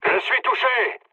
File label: aircraft destroyed mood low, speech fr